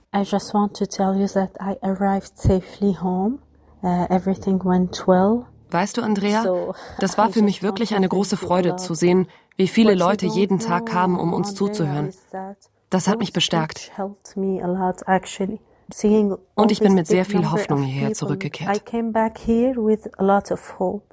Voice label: Voice Over